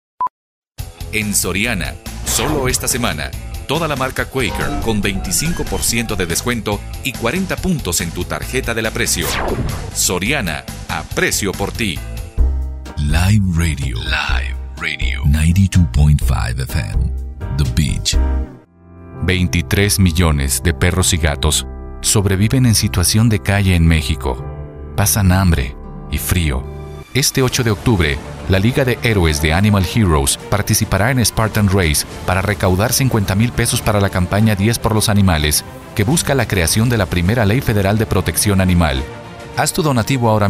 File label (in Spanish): Comercial